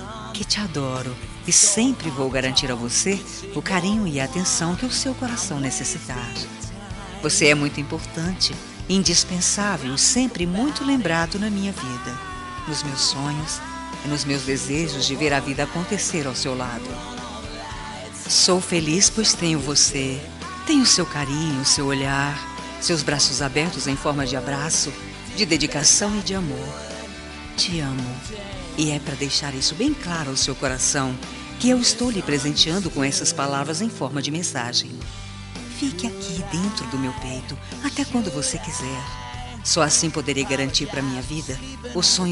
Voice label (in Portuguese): ANIV ROMÂNTICO FEM